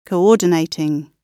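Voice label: "coordinating", British English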